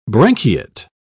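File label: "branchiate", British English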